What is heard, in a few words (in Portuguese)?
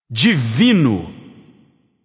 alert notify kill streak, vox caster portuguese